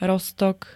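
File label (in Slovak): "roztok", Slovak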